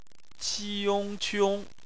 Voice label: "qiong", Chinese phonetics